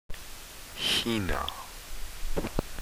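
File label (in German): "China", German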